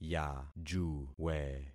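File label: "yajuwe", concatenated syllables; Affixing experiment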